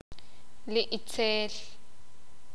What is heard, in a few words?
sakapulteko; El mal